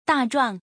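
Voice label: "da zhuang", Mandarin Chinese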